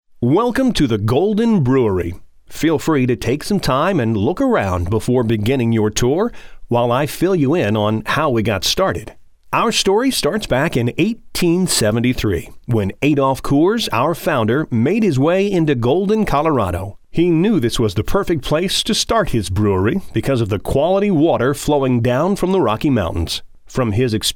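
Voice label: American English, male, voice over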